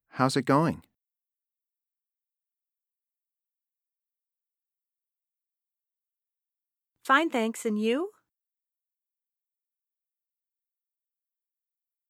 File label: Pronunciation Practice; Connectivity Foundation